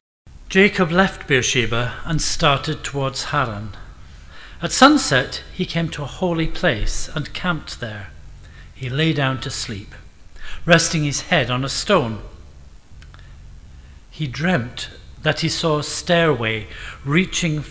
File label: read genesis 28; St. Mungo's